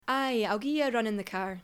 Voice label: Scots, accents of english; Scottish English

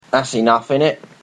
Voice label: Thats enough innit, accents of english

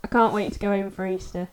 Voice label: Yorkshire, accents of english

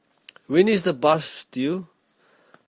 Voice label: when is the bus due, South African English

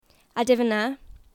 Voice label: Geordie, accents of english

